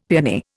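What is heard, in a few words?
"peony", Indian English; Dictionary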